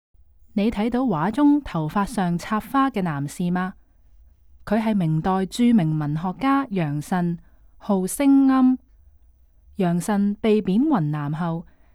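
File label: Cantonese, audio guide; stories untold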